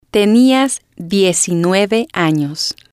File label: "tenias diecinueve anos", spanish